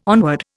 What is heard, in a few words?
"onward", Indian